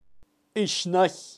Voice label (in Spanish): "ixnay", kaqchikel, pronunciacion de animales